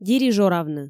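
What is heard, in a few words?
"дирижоравны", Komi, female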